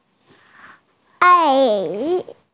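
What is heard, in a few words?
"ai3", Mandarin Chinese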